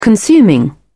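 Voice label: "consuming", British English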